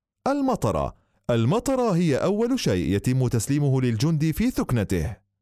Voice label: arabic